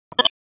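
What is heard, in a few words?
Police Northwest District Tactical, P25; Raleigh; wake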